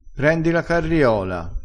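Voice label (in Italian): "carriola", dialettale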